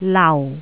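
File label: "lau4", Jyutping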